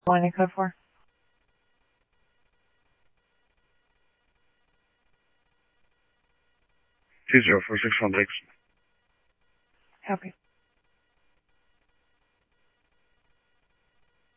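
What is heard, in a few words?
Mesa Police Department, scanner audio trunk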